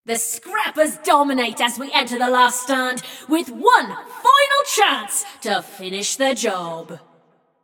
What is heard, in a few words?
Scrappers Lead, Announcer; Flux; Scraprun